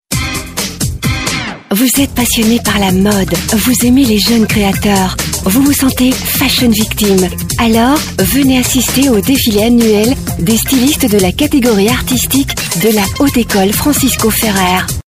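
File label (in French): Belgian French, female, commercial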